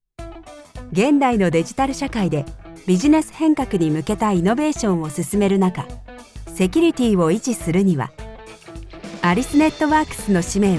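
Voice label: Corporate mix Smooth and Articulate, Voice Over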